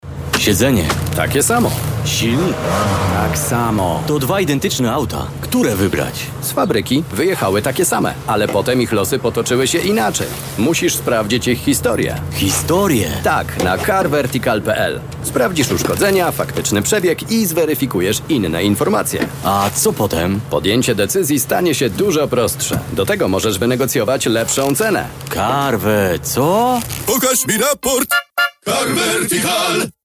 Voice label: polish voice commercial